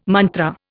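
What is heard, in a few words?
"mantra", Indian English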